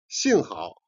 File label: "jian", Chinese